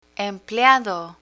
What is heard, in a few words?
"empleado", Spanish